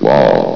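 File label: "wall", male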